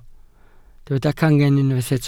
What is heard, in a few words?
"cangen", Welsh